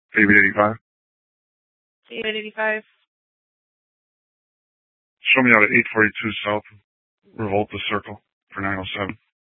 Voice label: Dispatch, scanner

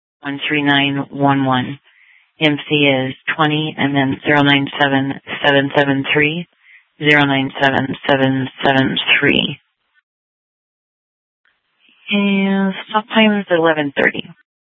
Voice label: Sheriff, scanner

